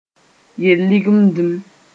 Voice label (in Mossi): "yel-lingdim", moore